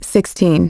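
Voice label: "sixteen", English; iOS 4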